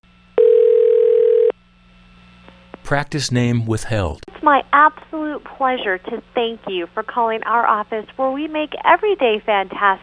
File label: Out Going Message Example